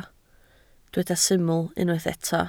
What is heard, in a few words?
"syml", Welsh